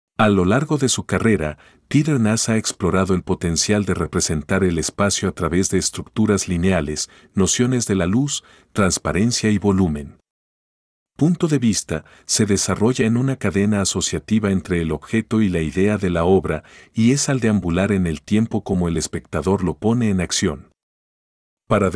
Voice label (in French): espagnol